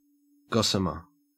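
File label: "gossamer", Australian English; pronunciations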